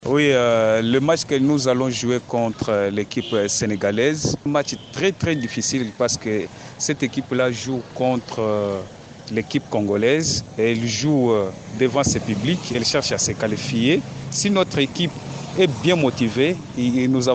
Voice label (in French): vox pop Kananga; match senegal vs rdc